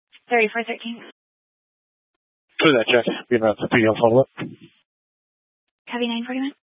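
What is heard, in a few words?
Police 1 Dispatch, Scanner audio recording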